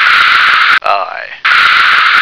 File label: "eye", American